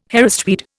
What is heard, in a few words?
"Harris tweed", Indian